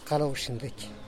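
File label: "kaloxindeky", Teotitlán del Valle Zapotec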